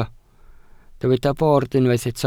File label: "bord", Welsh